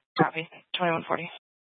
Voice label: Fire Department Dispatch, scanner

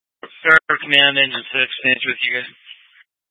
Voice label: Tactical Incidents, scanner

